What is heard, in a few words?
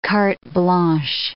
"cartes blanches", English